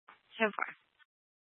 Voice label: Tempe PD, scanner; Rgnl Wireless Co-op (RWC)

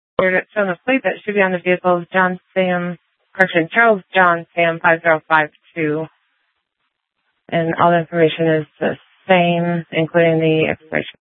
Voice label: Sheriff, scanner

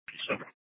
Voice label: PD Dispatch

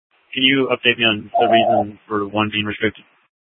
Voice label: Dispatch; Scottsdale

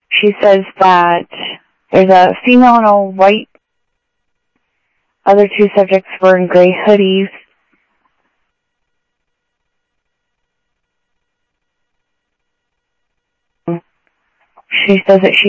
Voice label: Sheriff scanner audio

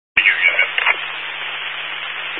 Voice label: Highway Patrol, Phoenix Metro West Area, scanner

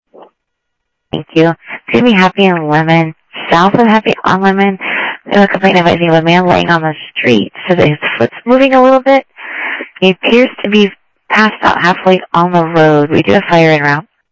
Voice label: Sheriff, scanner audio

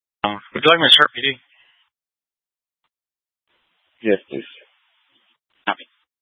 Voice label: Fire Departments Mesa Simulcast; C3 Mesa; TOPAZ

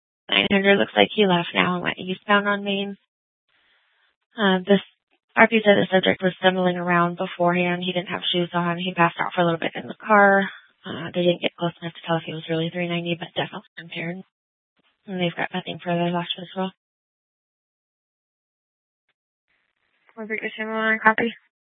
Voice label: Dispatch, Mesa PD; TOPAZ Regional Wireless Cooperative (TRWC) - Mesa Simulcast; 4 Superstition Dispatch

